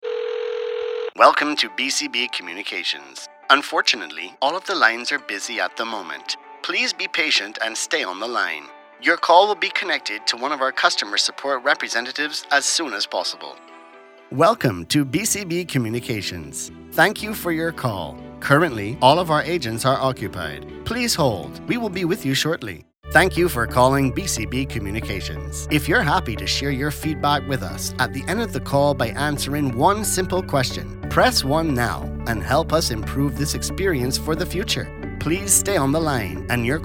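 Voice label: english international interactive voice response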